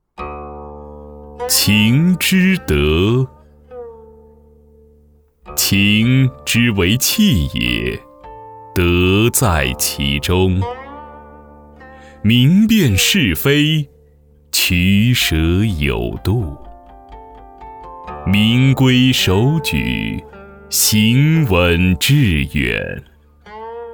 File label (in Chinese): 男 朗诵 古文诗词 自然; 琴之德